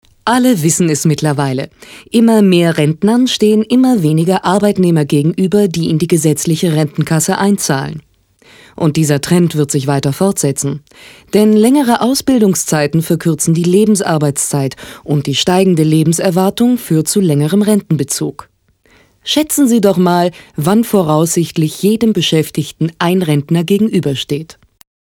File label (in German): Dokumentation; engelszungen